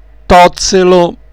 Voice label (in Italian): "tozzelo", dialetto